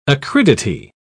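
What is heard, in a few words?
"acridity", British English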